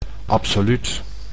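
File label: "absoluut", Dutch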